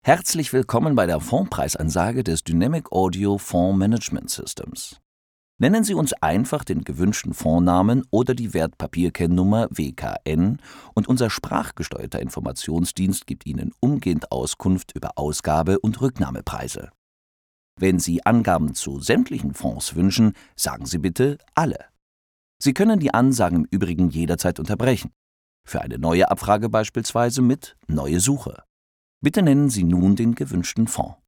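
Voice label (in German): Telefon, deutsch Männer; sprecher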